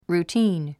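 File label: "routine", English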